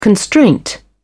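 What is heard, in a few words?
"constraint", English, British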